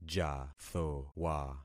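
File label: "jathowa", concatenated syllables; Affixing experiment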